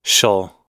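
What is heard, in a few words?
"shall", American English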